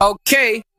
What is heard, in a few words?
"okay", expletives